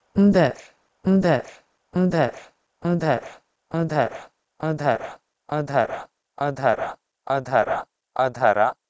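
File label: Proto-Indo-European ndher to Sanskrit adhara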